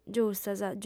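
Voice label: "giustas", female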